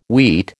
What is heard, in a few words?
"wheat", American